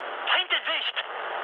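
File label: German enemy detected mood med, speech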